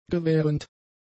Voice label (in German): "gewährend", german; adjectives